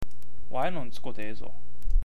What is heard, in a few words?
"wai", awaji ben